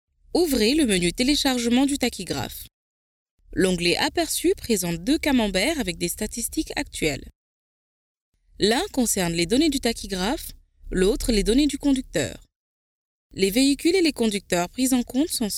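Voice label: African French, female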